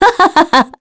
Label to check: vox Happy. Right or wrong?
Right